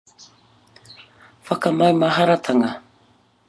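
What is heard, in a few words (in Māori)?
"whakamaumaharatanga", Māori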